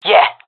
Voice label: affirmation, jungle fem; vo; agents; Source Model Packs; Counter Strike Model Pack; CS2; jungle fem epic

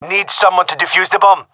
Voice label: cover defuse, male; vo

